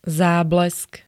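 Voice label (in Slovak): "záblesk", Slovak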